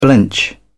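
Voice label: "blench", British English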